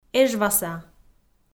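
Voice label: "эжваса", Komi, female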